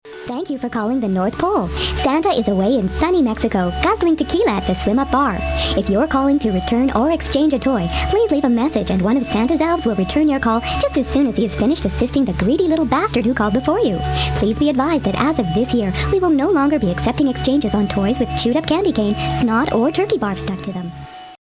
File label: voice greeting